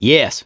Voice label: "yes", survivor voice, mechanic

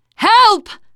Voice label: help, teengirl, voice

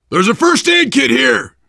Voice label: spot first aid, voice, biker; Left 4 Dead 2; survivor

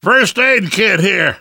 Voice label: spot first aid, namvet, survivor voice; Left 4 Dead 2; left4dead2 dlc3

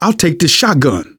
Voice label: take auto shotgun, survivor voice manager; Left 4 Dead 2; left4dead2 dlc3

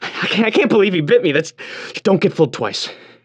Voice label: radio initial broadcast

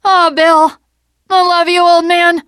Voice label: teengirl, voice; c6 dlc3 bill dies

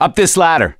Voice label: misc directional, voice; gambler

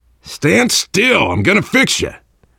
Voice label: heal other, voice, biker; Left 4 Dead 2; left4dead2 dlc3; survivor

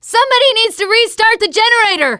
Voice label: teen girl; Left 4 Dead 2; left4dead2 dlc2; survivor

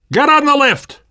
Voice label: world hospital, namvet, survivor voice; Left 4 Dead 2; left4dead2 dlc3

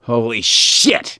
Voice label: reaction negative, voice; gambler

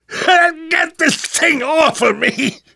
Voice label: tank pound, namvet, survivor voice; Left 4 Dead 2; left4dead2 dlc3

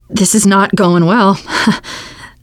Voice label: going to die, teengirl, voice

